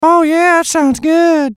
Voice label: VOICE COMICAL; Radio Voice; Blastwave